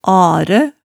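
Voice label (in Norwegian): "aræ", Hemsedal dialect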